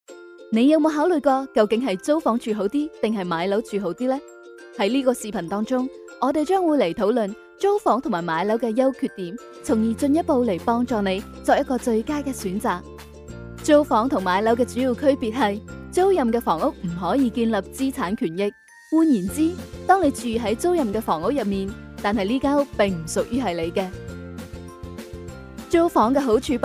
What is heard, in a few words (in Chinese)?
女粤-粤语【租房还是是买楼好？年轻】